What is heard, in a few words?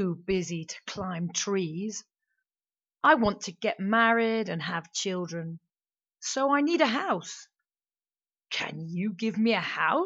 MIDDLE AGED MAN